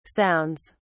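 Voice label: "sounds", British English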